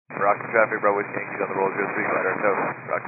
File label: 122 725MHz, scanner radio